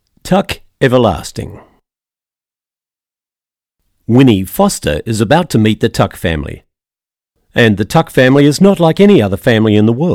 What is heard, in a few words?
Audio Book